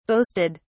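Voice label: "boasted", British English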